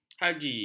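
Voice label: "haji", Malay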